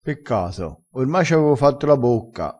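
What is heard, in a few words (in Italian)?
"facci la bocca", vocabolario dialettale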